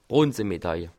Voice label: "bronze", Alsace

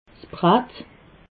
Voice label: "bratt", alsace

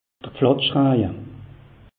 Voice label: "platschraje", alsace; meteo

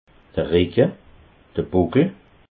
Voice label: "ricke", alsace

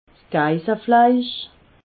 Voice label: "geissefleisch", alsace

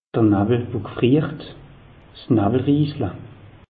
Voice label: "friert", alsace; meteo

